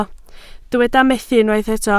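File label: "methu" Welsh, spoken word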